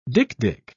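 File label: "dik-dik", British English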